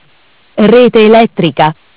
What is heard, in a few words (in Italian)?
"Mancanza Rete Elettrica", MESSAGGI VOCALI; Bentel; BLE320; Audio BGS220